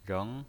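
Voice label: "reng", Mandarin Chinese pinyin voice, second tone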